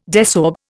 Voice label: "desorb", Indian

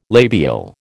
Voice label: "labial", American

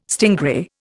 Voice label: "stingray", Indian

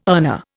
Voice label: "earner", Indian

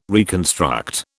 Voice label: "reconstruct", British

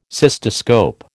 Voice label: "cystoscope", American

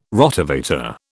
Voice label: "Rotavator", British

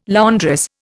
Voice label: "laundress", Indian

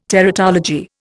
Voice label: "teratology", Indian

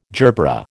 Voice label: "gerbera", American

